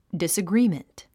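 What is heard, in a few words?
"disagreement", English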